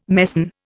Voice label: "mesne", Indian

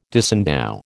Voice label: "disendow", American

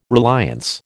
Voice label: "reliance", American

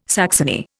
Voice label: "saxony", Indian

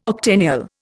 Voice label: "octennial", Indian

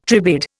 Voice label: "tribade", Indian

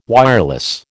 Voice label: "wireless", American

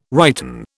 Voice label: "righten", British

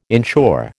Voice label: "insure", American

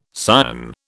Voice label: "Sion", British

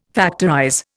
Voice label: "factorize", Indian

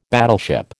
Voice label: "battleship", American

